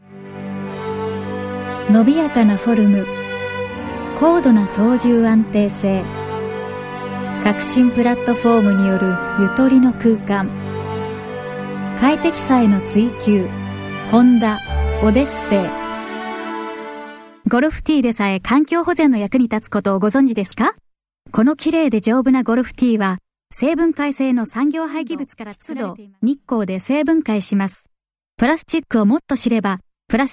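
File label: Japanese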